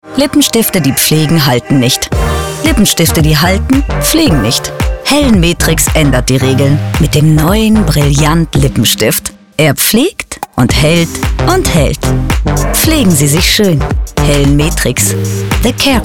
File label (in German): werbung kosmetik full mix; Muttersprache Deutsch